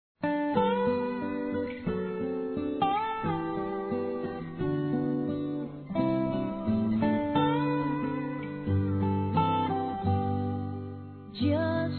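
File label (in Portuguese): GERAL, female, Dia Dos namorados; Telemensagens 2017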